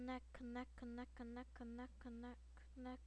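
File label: "neck", spoken word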